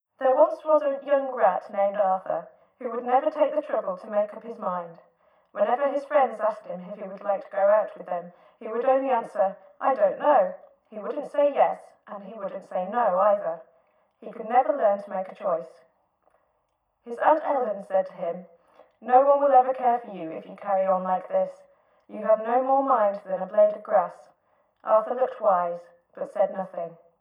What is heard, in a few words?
female speech